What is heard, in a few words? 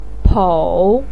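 "pou3", Mandarin pinyin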